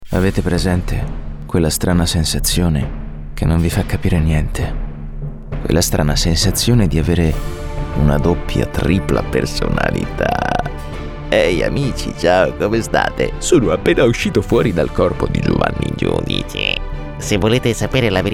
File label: Character Cartoon Old Man Kids Horror